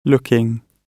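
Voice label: "looking", British English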